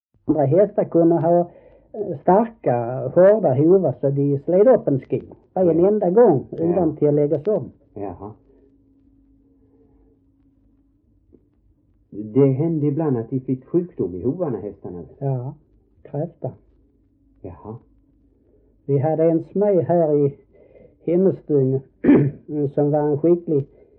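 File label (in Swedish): Äspö Skåne, dialekter svenska sagor; Folkminnen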